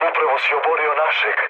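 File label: Serbian player killed ally mood low; speech; War Thunder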